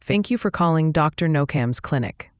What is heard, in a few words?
question english